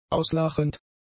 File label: "auslachend", German; adjectives